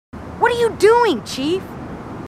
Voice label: marines female, marine tough